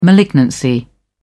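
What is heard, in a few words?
"malignancy", English, uk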